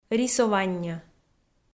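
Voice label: "рисованньӧ", Komi, female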